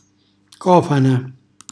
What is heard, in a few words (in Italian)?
"cofana", Calabrese